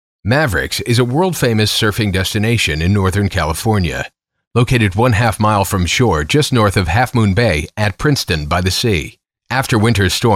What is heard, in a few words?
narration